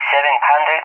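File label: "70", English, Spain; Numbers; DCS; Allied Flight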